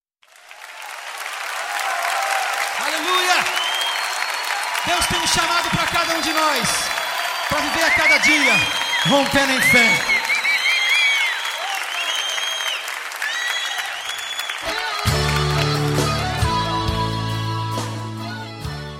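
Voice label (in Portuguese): Evangélica Aniversário de Irma, male, telemensagens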